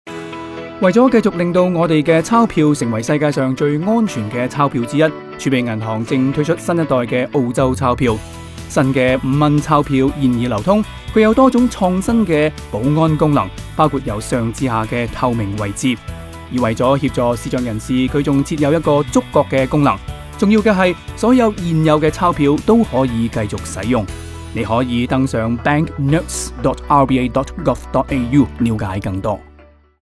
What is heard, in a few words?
radio ad translation cantonese